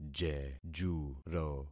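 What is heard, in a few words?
"jejuro", concatenated syllables; Affixing experiment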